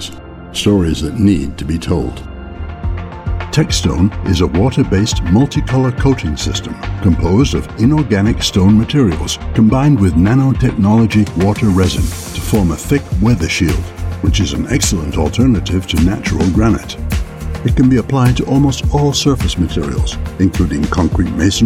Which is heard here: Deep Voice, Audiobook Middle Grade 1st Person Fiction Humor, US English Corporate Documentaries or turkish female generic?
US English Corporate Documentaries